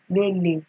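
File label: "lele", Nigerian Pidgin, female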